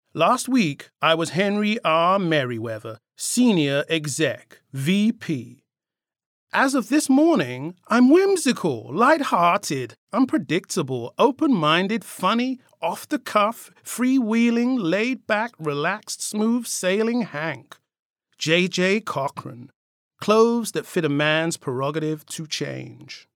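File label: English British, male, voice over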